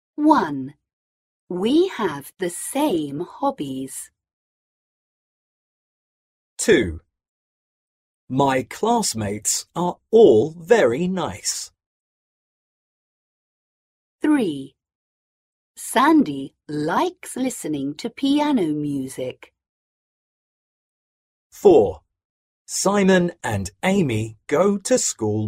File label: Pronunciation Part C; 初中英语七年级上册江苏译林2024版